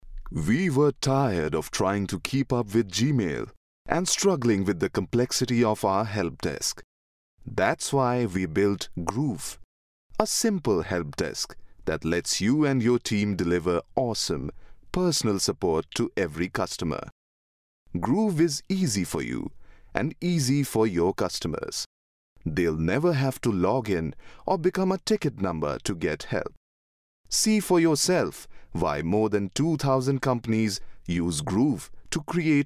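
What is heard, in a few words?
English male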